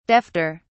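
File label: "defter", British English, dictionary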